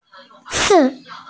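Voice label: "ci4", Mandarin Chinese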